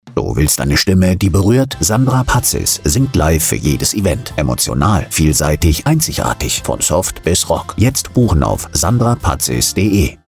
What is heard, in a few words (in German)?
Funkspot Event und Hochzeitssaengerin